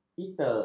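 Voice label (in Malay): "eter", Malay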